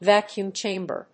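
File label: "Vacuum chamber", English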